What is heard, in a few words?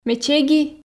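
Komi, female; ме чеги ...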